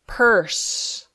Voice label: "purse", English